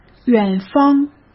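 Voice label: "远方", Mandarin Chinese; chinese flashcards; hsk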